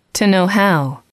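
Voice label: "to know how", English, female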